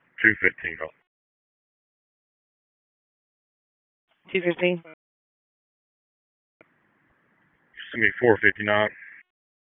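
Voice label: Police Northeast District Dispatch, p25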